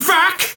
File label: "fuck", expletives; peter griffin